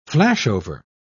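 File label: "flashover", British English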